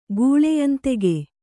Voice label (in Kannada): "ಗೂಳೆಯಂದೆಗೆ", Kannada